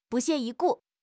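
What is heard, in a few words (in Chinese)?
"不屑一顾", Chinese; HSK6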